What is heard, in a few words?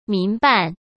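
"民办", Mandarin Chinese; hsk; chinese flashcards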